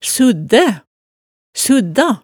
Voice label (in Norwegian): "suddæ", hemsedalsmaalet